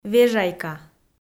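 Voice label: "вежайка", Komi, female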